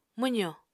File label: "mwzo", Apatani